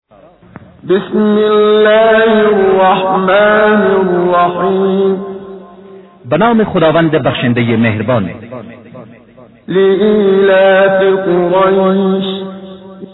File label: Surah Farsi; Menshay Translation